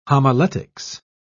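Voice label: "homiletics", British English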